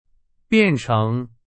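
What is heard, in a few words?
Chinese; hsk2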